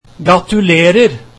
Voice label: Congratulations, norwegian